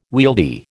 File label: "wieldy", American